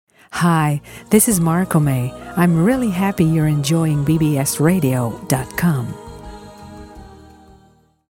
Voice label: bbs radio promo